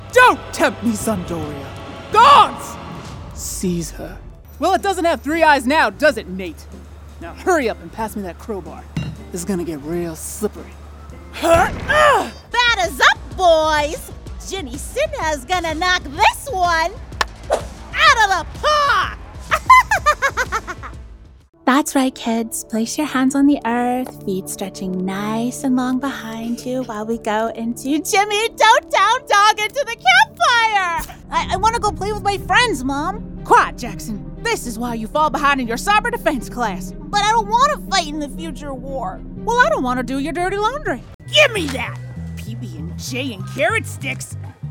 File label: Animation